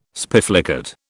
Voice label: "spiflicate", British